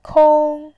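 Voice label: "kong1", Mandarin Chinese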